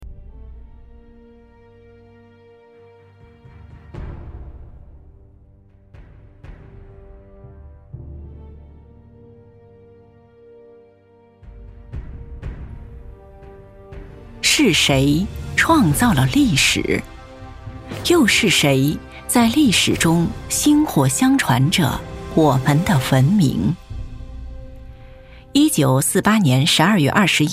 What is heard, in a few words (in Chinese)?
记录片; 台北故宫